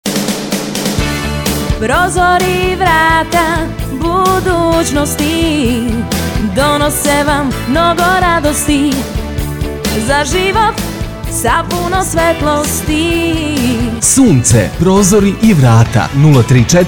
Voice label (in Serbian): reklama; Sunce